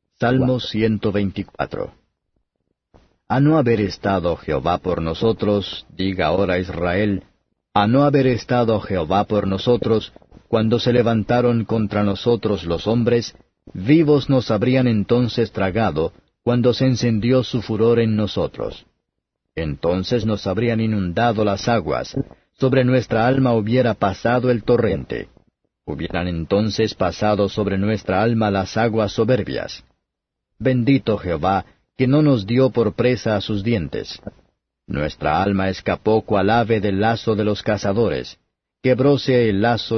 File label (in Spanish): salmos spanish